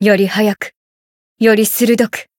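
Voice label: Voice growth, Japanese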